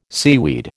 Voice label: "seaweed", American

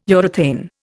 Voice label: "urethane", Indian

